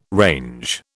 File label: "range", British